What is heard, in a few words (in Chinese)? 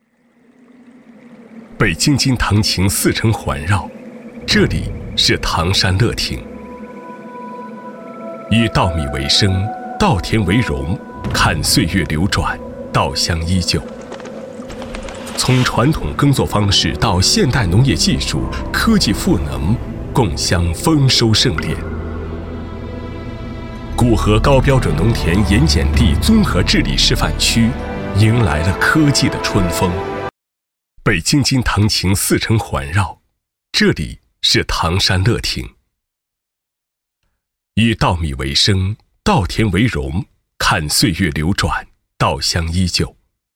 男 大音 城市; 唐山乐亭